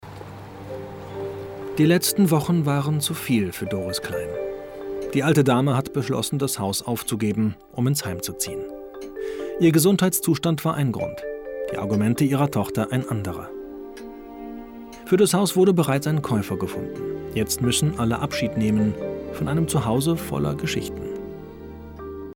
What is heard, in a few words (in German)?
Kommentar